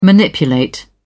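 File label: "manipulate", British English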